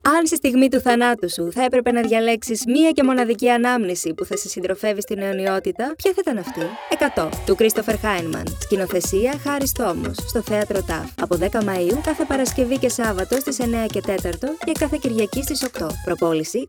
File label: Radio Spot